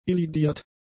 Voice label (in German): "elidiert", german; adjectives